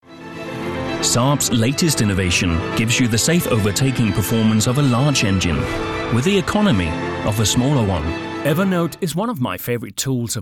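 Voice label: British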